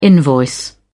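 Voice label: "invoice", British English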